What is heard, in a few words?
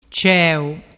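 "ceu", Vernazzese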